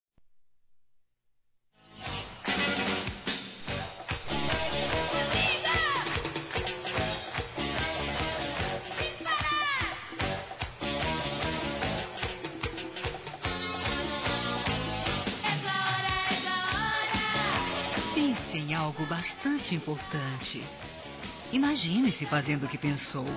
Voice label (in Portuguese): Para Criança Geral Feminino, Aniversário Infantil; Telemensagens